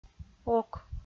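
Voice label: "ок", Komi, female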